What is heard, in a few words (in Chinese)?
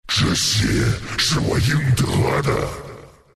last hit, zh; Doom; Dota 2